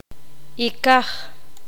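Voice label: "hacha", Poqomam, female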